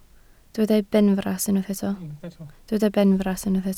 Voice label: "benfras", Welsh

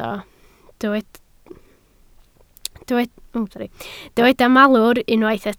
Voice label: "malwr", Welsh

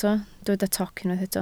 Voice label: "toc", Welsh

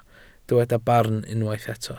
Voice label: "barn", welsh

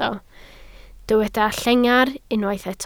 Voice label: "llengar", Welsh

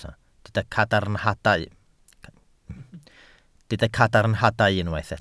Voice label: "cadarnhadau", Welsh

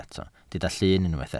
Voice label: "llun", Welsh

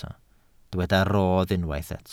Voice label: "rodd", Welsh

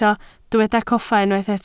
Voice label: "coffa", Welsh

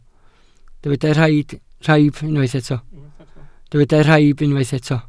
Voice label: "rhaib", welsh

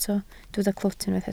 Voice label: "clwt", Welsh

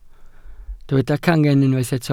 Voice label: "cangen", Welsh

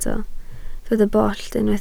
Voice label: "bollt", Welsh

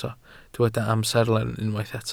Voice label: "amserlen", Welsh

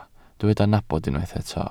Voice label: "nabod", Welsh

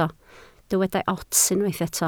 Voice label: "ots", Welsh

